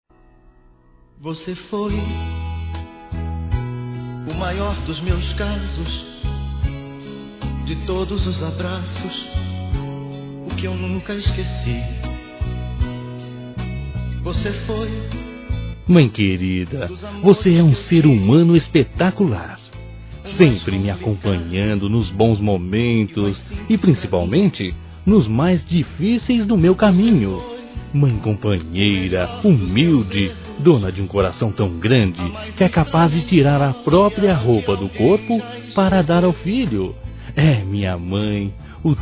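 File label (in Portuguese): AGRADECIMENTO A MÃE, masculino; Telemensagens; Convites e Agradecimentos; Telemensagens 2017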